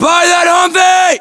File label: US landmark near humvee, battlechatter, english; localized english